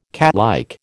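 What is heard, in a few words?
"catlike", American English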